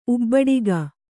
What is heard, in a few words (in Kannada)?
"ಉಬ್ಬಡಿಗ", Kannada